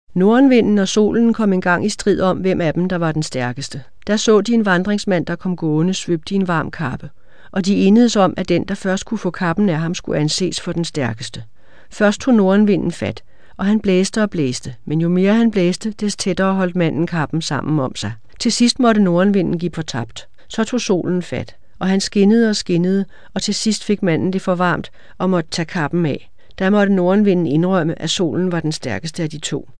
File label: narrative, Danish